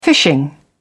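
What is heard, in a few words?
"fishing", British English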